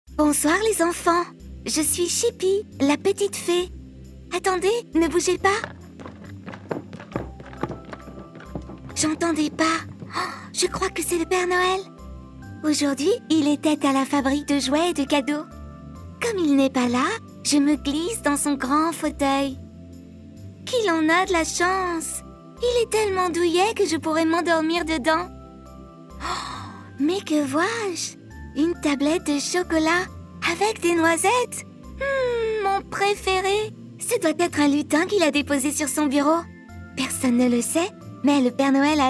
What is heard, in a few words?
french animation